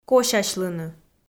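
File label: "косясьлыны", Komi, female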